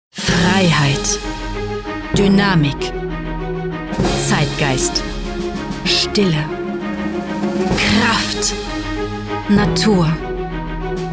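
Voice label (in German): Autohaus, Sprecher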